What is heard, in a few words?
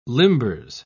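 "limbers", British English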